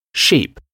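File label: "sheep", English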